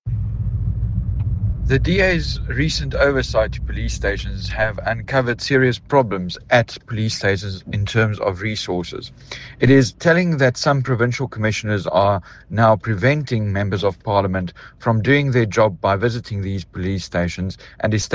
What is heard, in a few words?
English